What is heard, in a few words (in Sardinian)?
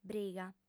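"briga", female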